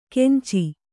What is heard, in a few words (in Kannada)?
"ಕೆಂಚಿ", Kannada